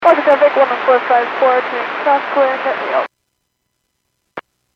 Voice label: Airports 122.7, Radio; KSTE

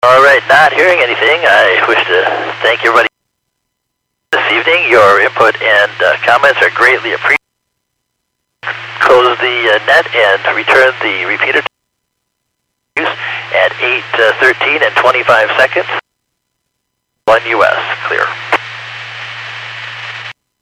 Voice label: Skywarn 146.67; Stevens Point

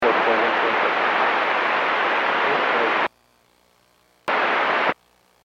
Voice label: Aviation 122.7; KSTE

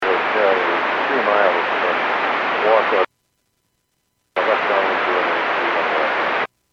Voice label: Airports 122.7, Radio; KSTE

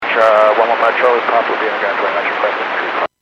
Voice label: Aviation 121.5 Emergency

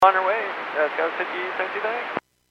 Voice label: Airports 122.7, Radio; KSTE

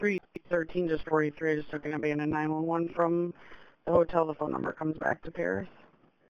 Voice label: Police Sheriff Dispatch; Radio

